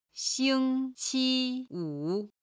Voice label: "xing1 qi1 wu3", chinese